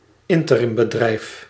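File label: "interimbedrijf", Dutch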